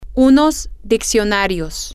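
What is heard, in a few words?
some dictionaries, Spanish, female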